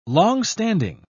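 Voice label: "longstanding", British English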